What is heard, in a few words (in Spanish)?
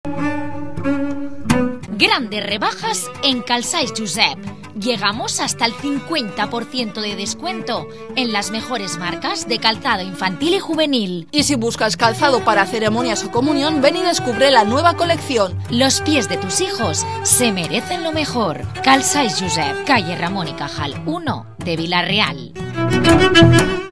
anuncio; sabateriajosep